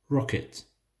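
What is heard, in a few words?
"rocket", English; how many toys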